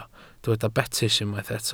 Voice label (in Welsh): "betys", Welsh